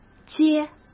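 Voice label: "揭", Mandarin Chinese; chinese flashcards; hsk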